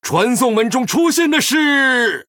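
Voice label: Male, Chinese; TRIGGER UNSTABLE PORTAL; Medivh; Human; zh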